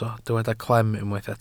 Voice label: "clem", Welsh

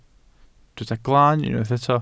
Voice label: "glaan", welsh

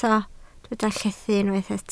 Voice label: "llethu", Welsh

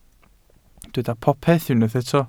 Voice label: "popeth", Welsh

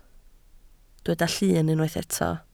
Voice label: "llun", Welsh

